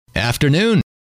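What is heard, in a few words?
"afternoon", male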